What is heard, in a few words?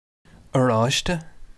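"oraiste", Irish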